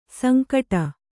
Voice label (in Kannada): "ಸಂಕಟು", Kannada